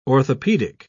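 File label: "orthopedic", British English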